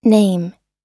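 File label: "name", US English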